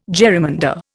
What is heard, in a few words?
"jerrymander", Indian